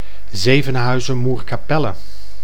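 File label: "Zevenhuizen-Moerkapelle", Dutch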